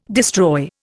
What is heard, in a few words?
"destroy", Indian